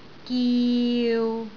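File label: "giu6", Cantonese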